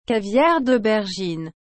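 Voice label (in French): "caviar d aubergine", French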